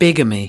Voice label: "bigamy", us